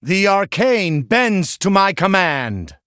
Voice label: Male Human Hero Power